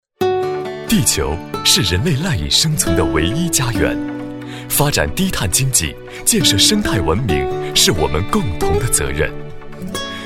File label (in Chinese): 宣传片 企业 浑厚; 霓虹王